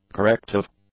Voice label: "corrective", English; adjectives